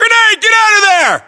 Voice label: inform incoming grenade, battlechatter, English, US